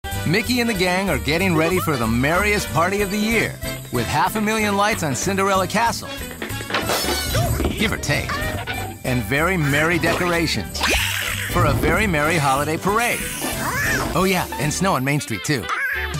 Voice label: Promo Television

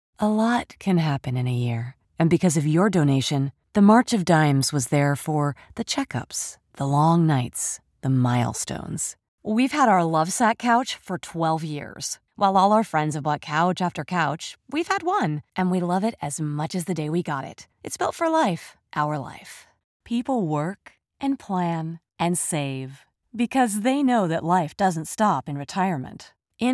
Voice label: Dry

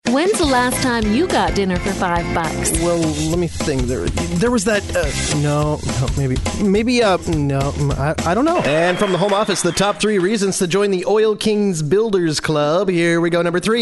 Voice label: Character Demo

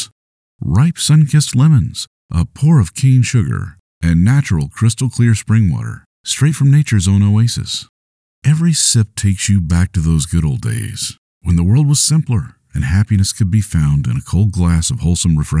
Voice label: Lemonade Ad; Lemonade Ad demo

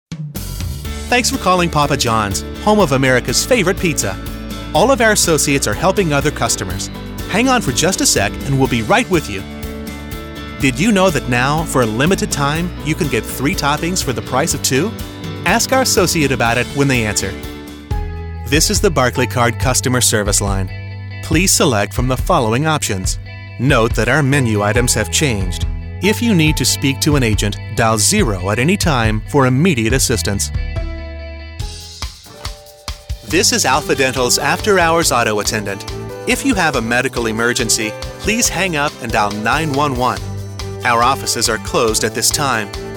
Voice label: Telephony

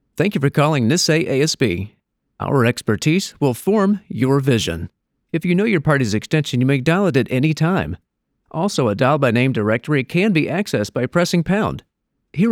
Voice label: greeting, prompts and MOH

